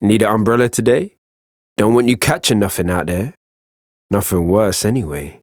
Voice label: Ambient Barks, en; Warframe; Mall Romance; Quincy